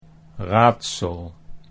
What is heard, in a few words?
"raadsel", Dutch